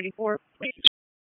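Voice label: Raleigh Police Special Operations Tactical, p25; wake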